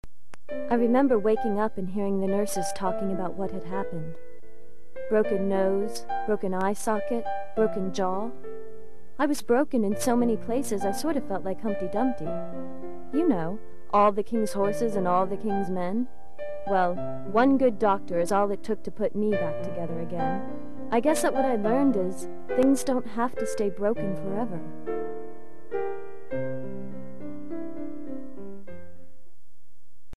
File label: COMMERCIAL